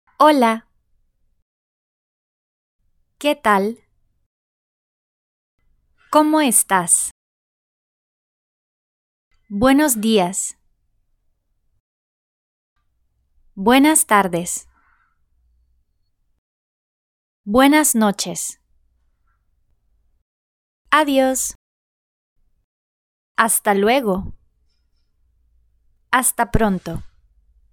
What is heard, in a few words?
greetings, Spanish